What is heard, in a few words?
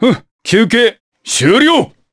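vox Japanese; Baudouin; kingsraid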